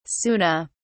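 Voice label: "sunna", English, uk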